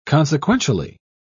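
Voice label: "consequentially", British English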